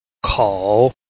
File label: "kou3", Chinese